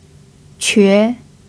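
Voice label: "que" second tone, chinese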